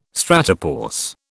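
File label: "stratopause", British English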